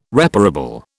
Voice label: "reparable", British